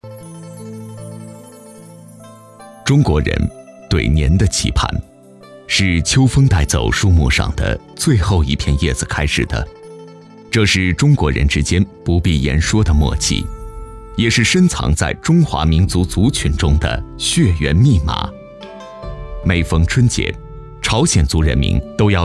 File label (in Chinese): 男-纪录片【-低沉 磁性】, 磁性大气 大气浑厚; 过年